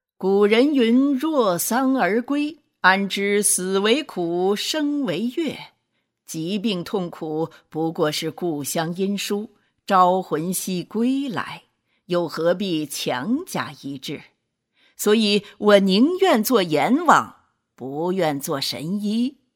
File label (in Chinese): 严肃